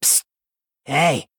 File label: Male Gnome Greetings